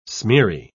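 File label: "smeary", English, British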